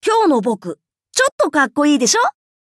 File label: levelup, ja; cookie run